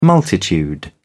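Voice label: "multitude", British English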